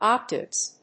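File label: "Octaves", English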